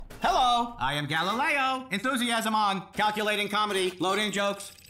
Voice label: funny robot; Talking Tom; galileo